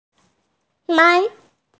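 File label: "men2", Mandarin Chinese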